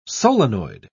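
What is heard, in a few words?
"solenoid", English, British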